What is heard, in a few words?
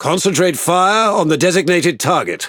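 Speech Priority Target, British English; World Of Warships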